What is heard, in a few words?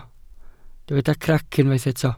"crac", welsh